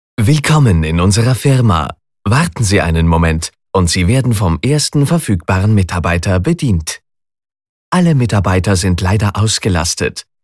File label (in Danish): DE UFORMEL MAND; Stemmebasen